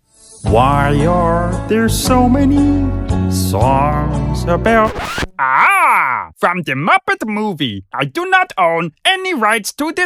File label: Characters and Impressions